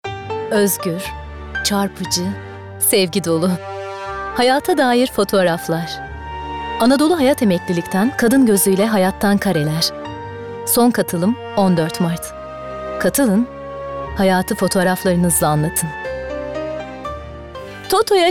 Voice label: REKLAM, tr

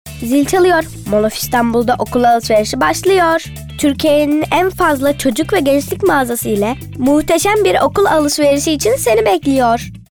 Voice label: Reklam

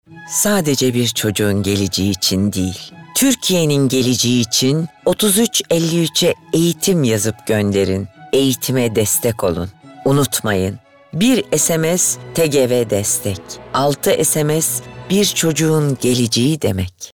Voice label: Romantik

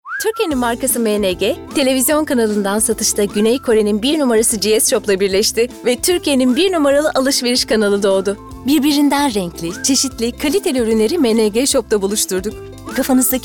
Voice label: Tanitim

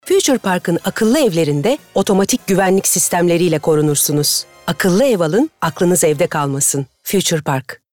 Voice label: Guclu Otoriter

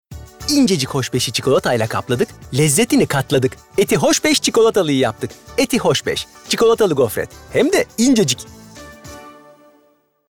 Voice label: Neseli

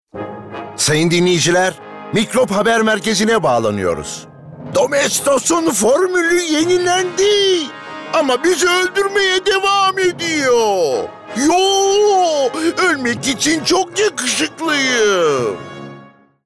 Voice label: Animasyon

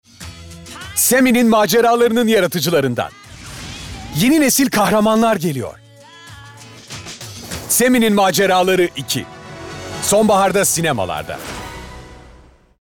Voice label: Fragman

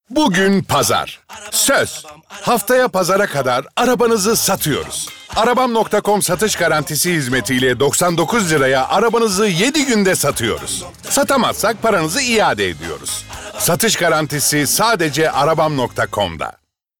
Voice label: Neseli

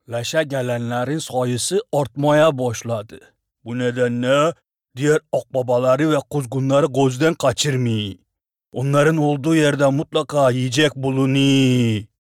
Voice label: Dogu Anadolu Lehcesi

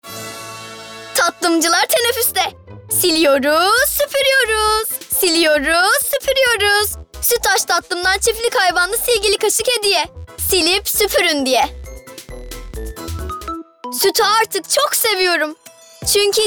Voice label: REKLAM

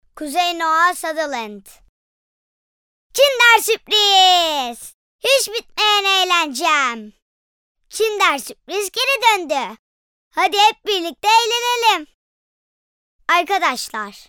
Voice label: Reklam

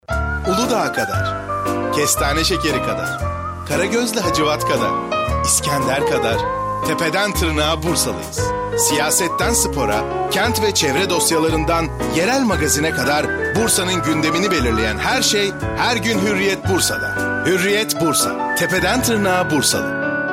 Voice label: reklam, tr

